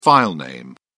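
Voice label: "filename", English, British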